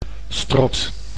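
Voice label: "strot", Dutch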